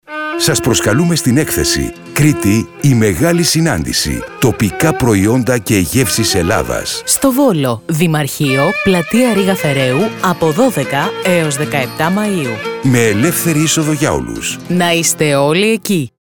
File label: spot; ΠΑΓΚΡΗΤΙΑ ΕΚΘΕΣΗ ΒΟΛΟΣ ΑΝΟΙΞΗ 2022